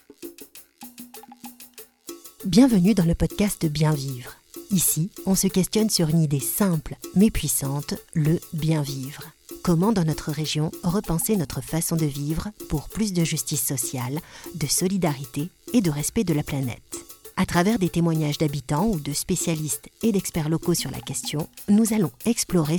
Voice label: french podcasts; French voice over actress native from France, neutral accent

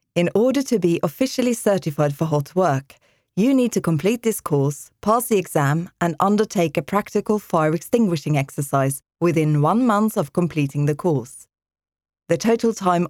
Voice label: english e-learning; norwegian voice actors

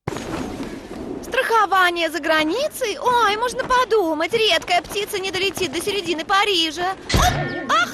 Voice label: russian animation

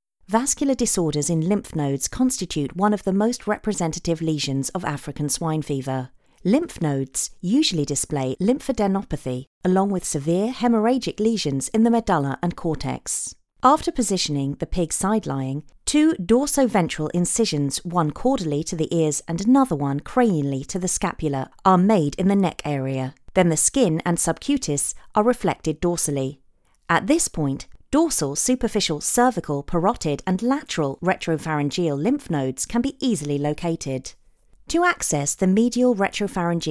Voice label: english british medical narration

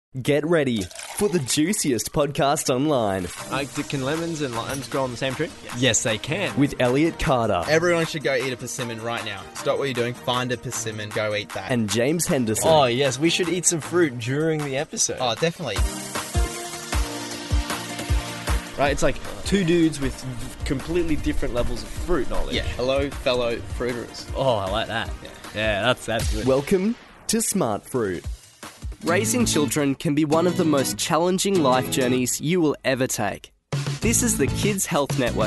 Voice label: english australian podcasts

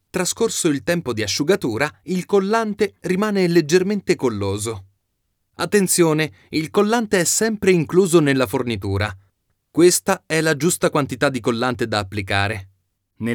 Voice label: italian e learning

